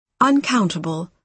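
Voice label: "uncountable", British English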